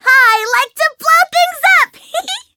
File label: eng menu tap; Cherry